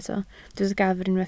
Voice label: "gafr", Welsh